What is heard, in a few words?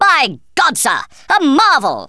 amazing, Speech Thespian; Worms Armageddon